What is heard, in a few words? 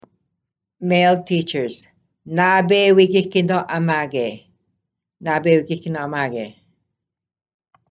Male teachers; Lakehead District School Board